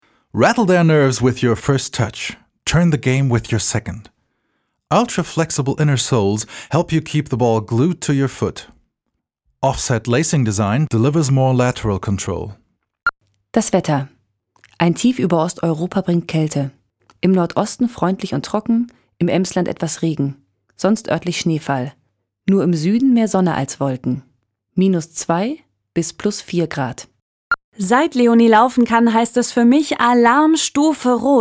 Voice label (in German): Sprache mix